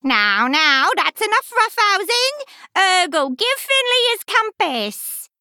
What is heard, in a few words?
Female Murloc Victory